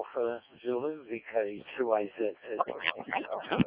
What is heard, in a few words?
decoded speech, 7177KHz